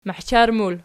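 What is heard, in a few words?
"mahchar" plural, Archi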